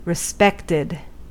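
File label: "respected", US English; pronunciations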